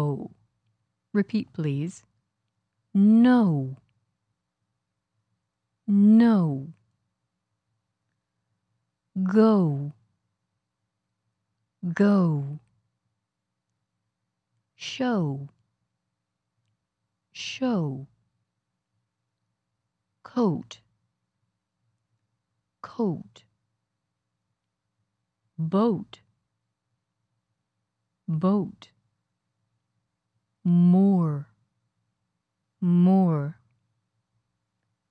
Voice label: Vowels, Vowel Sound 8, American English Accent; Improve Your American English Accent